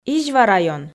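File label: "изьва район", Komi, female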